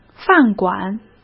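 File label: "饭馆", Mandarin Chinese; chinese-flashcards; hsk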